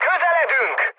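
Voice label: Hungarian near waypoint mood med, speech; War Thunder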